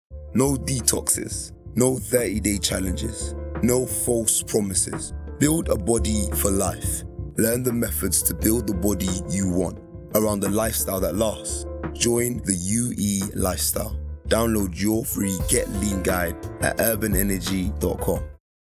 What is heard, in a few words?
male english urban energy commercial